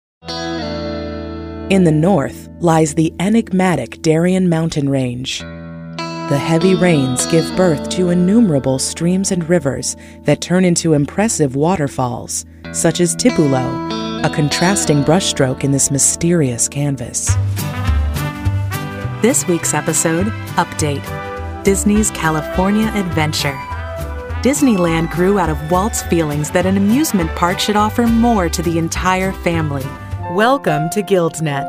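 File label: Narration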